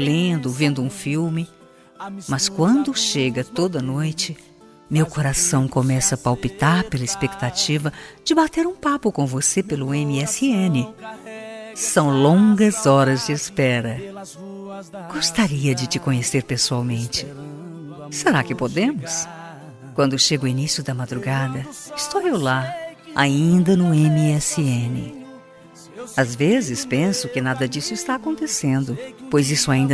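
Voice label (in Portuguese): CONQUISTA female, Telemensagem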